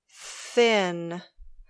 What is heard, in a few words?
"thin", English